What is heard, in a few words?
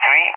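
"3", English; Digits; DCS; Allied Flight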